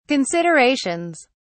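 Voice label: "considerations", English, British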